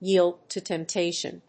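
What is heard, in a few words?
"yield to temptation", English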